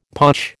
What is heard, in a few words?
"potch", American